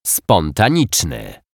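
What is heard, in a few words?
"spontanyczny", Polish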